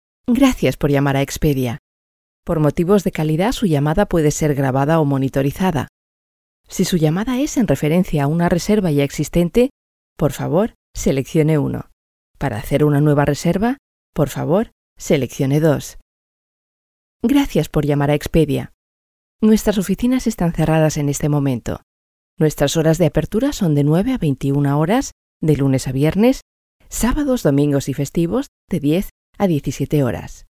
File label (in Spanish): es phone contestador